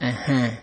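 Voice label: "ehen", Nigerian Pidgin, male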